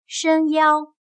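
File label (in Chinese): "伸腰" Mandarin Chinese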